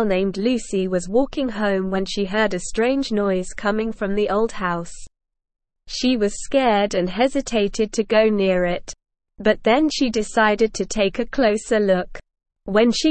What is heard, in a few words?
ESL Short Stories for Kids SLOW reading The Ghost of the Old Mansion